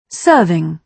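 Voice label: "serving", British English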